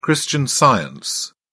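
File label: "christian science", British English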